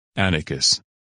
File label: "Atticus", names, men